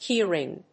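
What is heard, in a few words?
"key ring", English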